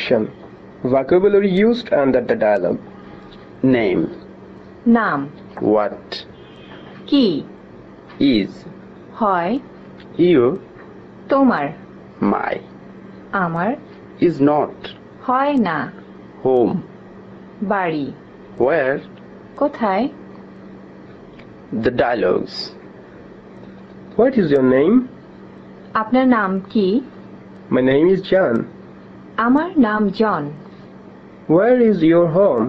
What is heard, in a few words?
Bangla Lesson; PeaceCorps; Bangladesh